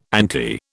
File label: "ante", British